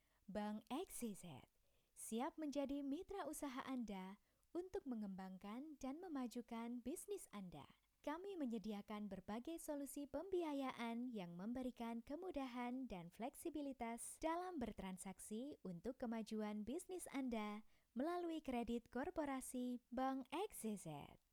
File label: Formal Indonesia